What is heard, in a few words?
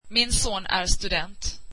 swedish my son is a student